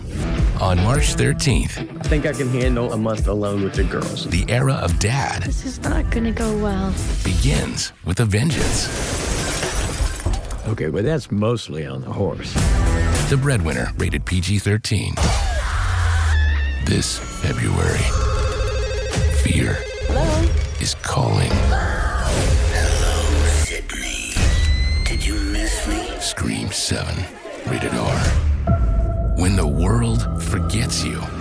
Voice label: Movie Trailer